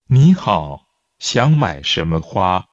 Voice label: Mandarin Conversation